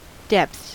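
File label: "depths", American English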